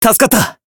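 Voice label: healed, Japanese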